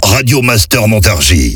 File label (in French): Voix off Accap medium; Montargis